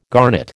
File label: "garnet", American